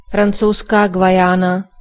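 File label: "French Guiana", Czech; gcompris; geography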